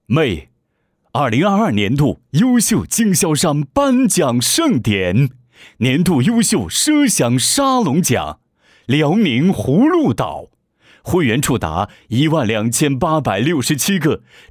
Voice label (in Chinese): 男颁奖盛典